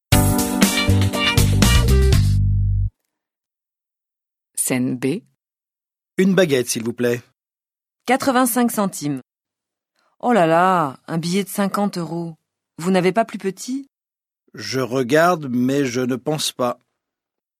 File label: French